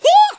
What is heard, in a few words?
jump, Geordie; speech